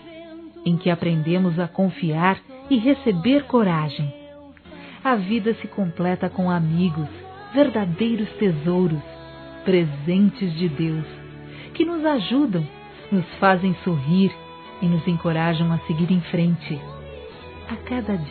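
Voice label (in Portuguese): HOMENAGEM A AMIZADE, feminino; Telemensagens; Telemensagens 2017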